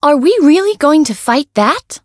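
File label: encounter fear; rosetta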